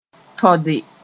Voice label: "tõde", Mooré; refuse

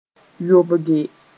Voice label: "yobge", Mooré

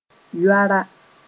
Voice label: "yoara", Mooré

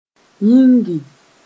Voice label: "yĩngi", Mooré

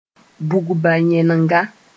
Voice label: "bugba-yẽnega", moore

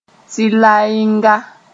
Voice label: "tɩlaeenga", moore

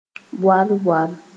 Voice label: "boal-boal", Mooré

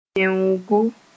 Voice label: "yẽoogo", moore

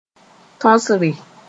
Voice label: "tõsre", moore

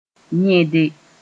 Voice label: "yẽde", Mooré; clog

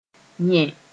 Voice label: "yẽ", Mooré; cleanse

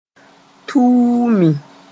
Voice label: "tuumi", moore

